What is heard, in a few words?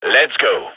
radio lock n load; vo; gign